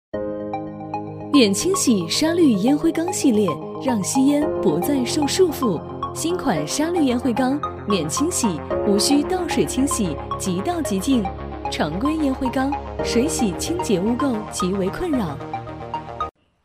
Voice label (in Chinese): 淘宝类产品